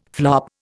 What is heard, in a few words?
"flop", Indian